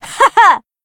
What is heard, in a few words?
Battle Shout; Kasumi